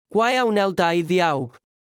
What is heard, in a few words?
Welsh proverb